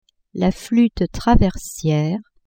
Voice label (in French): "flute traversiere", french; the flute; la flûte traversière